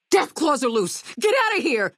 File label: female adult dialogue greeting; Fnv